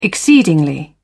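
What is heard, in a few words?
"exceedingly", British English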